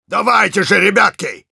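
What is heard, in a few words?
Engineer jeers, Russian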